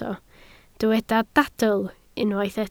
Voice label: "dadl", welsh